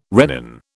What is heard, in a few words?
"rennin", British